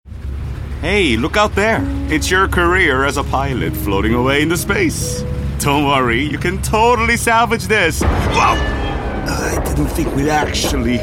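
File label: Korean, Character; GoLocalise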